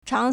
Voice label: "chang2", Chinese